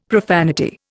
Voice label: "profanity", Indian; Dictionary